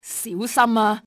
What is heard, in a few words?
careful, cantonese; XBOX; enemy